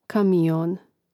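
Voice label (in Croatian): "kamion", Hrvatski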